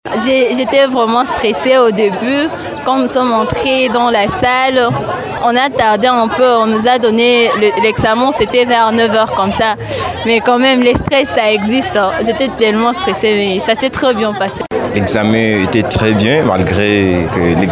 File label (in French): VOXPOP FR; EXMEN DE DISSERTATION